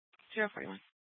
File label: Superstition Dispatch, Mesa PD